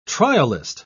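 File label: "trialist", British English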